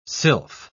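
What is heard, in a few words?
"sylph", British English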